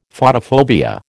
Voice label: "Photophobia", American

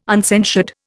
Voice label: "uncensured", Indian

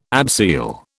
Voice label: "abseil", British

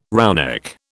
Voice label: "roughneck", British

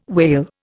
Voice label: "wale", Indian

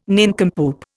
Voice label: "nincompoop", Indian

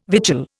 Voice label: "vigil", Indian

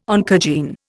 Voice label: "oncogene", Indian

